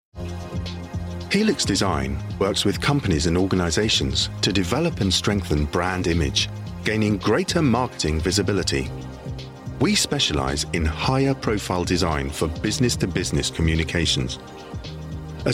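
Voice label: British English Corporate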